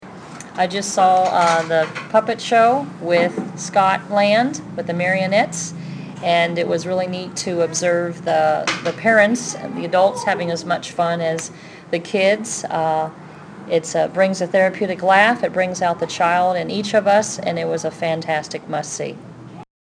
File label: Librarian Testimonial; Beverly Hills